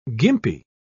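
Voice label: "gimpy", British English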